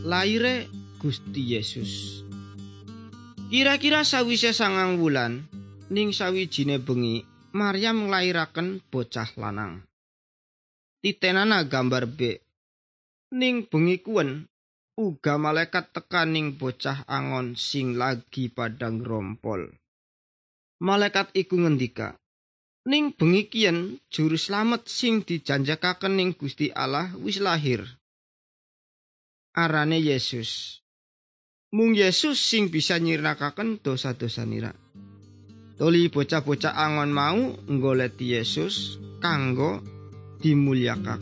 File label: good news jawa indramayu, javanese bible